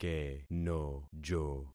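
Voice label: "kenojo", concatenated syllables; Affixing experiment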